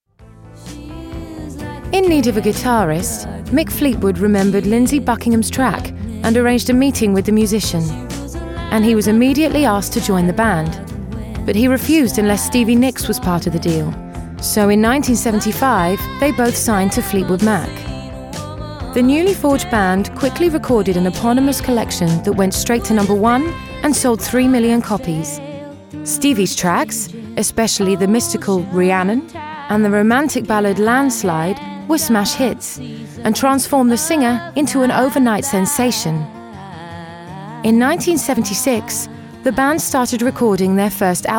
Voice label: Narration